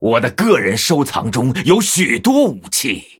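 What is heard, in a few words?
Male, Chinese; Blood Elf; Meteor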